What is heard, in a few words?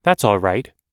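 everyday apologizing, American English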